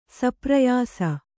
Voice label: "ಸಪ್ರಯಾಸ", Kannada; saprayāsa; exerting effort; with difficulty; laboriously.; deliberately.

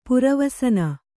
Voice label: "ಪುರವಸನ", Kannada

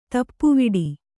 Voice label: "ತಾಪವಡೆ", Kannada

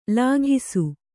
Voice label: "ಲಾಘಿಸು", Kannada

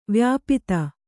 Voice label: "ವ್ಯಾಪ್ತ", Kannada

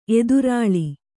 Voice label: "ಎದುರುಲಿ", kannada

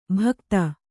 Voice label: "ಭಕ್ತ", Kannada

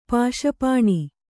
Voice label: "ಪಾಶಪಾಣಿ", Kannada; pāśa pāṇi; noun

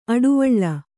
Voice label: "ಅಡುವಳ್ಳ", Kannada

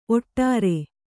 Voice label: "ಓಡ್ರ", kannada

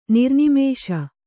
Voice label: "ನಿರ್ನಿಮೇಷ", Kannada

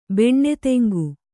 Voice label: "ಬೆಣ್ಣೆತೆಂಗು", Kannada

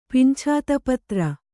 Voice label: "ಪಿಂಛಾತಪತ್ರ", Kannada

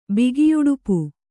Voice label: "ಬಿಗಿಯುಡುಪು", Kannada

